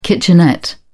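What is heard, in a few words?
"kitchenette", British English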